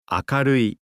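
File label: "akarui", Japanese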